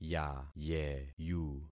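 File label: "yayeyu", concatenated syllables; Affixing experiment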